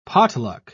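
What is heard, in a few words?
"potluck", British English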